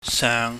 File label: "seung", pingyam